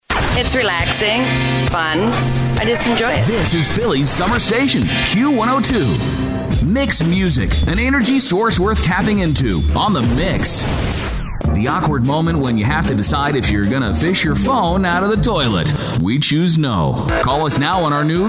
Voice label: Voiceover